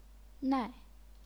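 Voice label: "nai", fourth tone, chinese pinyin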